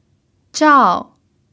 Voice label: "zhao4", Chinese pinyin